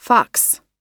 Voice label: "fox", pronunciation

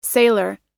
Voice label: "sailor", us

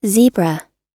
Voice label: "zebra", pronunciation

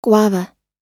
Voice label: "guava", us

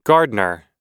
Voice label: "gardener", us

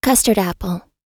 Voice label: "custard apple", us

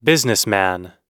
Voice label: "businessman", us

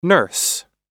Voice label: "nurse" US

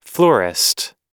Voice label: "florist", us

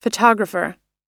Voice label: "photographer", us

